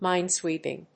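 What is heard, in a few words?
"minesweeping", English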